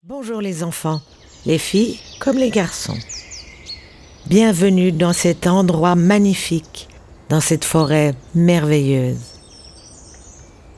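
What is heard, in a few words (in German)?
OFF CH FR, französisch Schweiz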